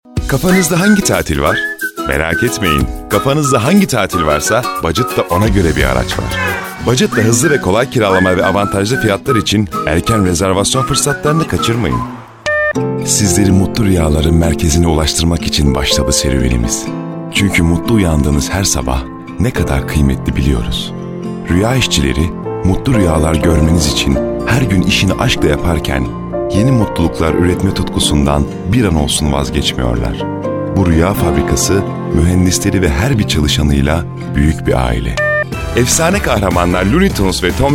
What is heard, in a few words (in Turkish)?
reklam; voice over agency